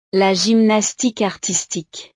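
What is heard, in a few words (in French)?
"la gymnastique artistique", French; deportes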